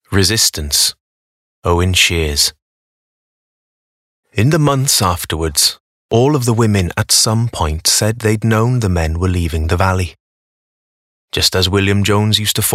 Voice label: AUDIOBOOK; RESISTANCE